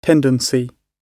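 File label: "tendency", British English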